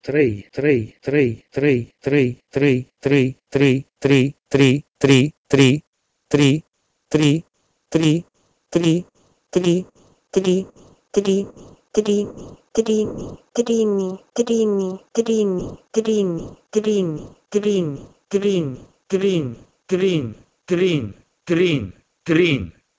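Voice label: Proto-Indo-European trei to Romani trin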